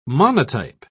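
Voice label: "monotype", British English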